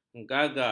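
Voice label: "gagak", Malay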